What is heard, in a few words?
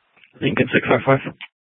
Sheriff, scanner; Shrf Lakes